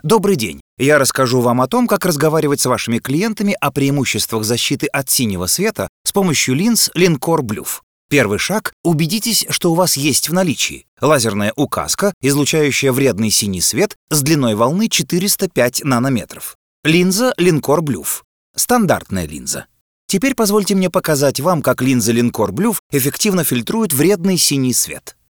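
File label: businesslike, voiceover video; профессиональных дикторов для озвучивания видео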